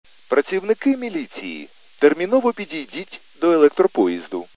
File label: khs st male, subway station